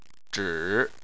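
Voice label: "zhi" Chinese, third tone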